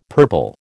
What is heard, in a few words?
"purple", American